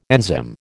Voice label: "enzyme", American

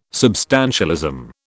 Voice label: "substantialism", British

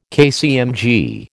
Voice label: "KCMG", American

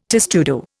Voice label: "testudo", Indian

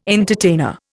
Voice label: "entertainer", Indian

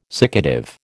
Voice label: "siccative", American

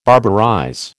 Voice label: "barbarize", American

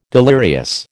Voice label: "delirious", American

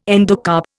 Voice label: "endocarp", Indian

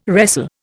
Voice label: "wrestle", Indian

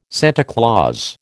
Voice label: "Santa Claus", American

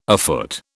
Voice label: "afoot", British

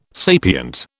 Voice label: "sapient", British

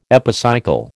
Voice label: "epicycle", American

